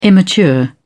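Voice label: "immature", British English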